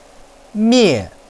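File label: "mei4", Chinese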